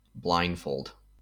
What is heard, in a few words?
"blindfold", us